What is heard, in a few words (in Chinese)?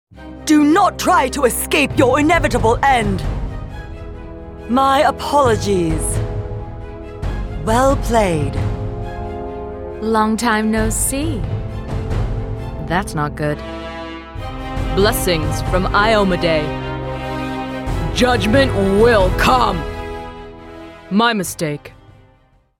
中年-英语-女